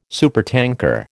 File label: "supertanker", American